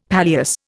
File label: "palliasse", Indian; Dictionary